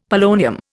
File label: "polonium", Indian; Dictionary